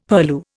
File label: "purlieu", Indian; Dictionary